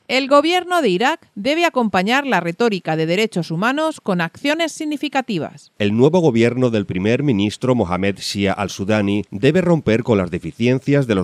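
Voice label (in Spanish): informativo; irak acciones significativas ddhh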